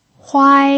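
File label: "huai", first tone, Mandarin Chinese pinyin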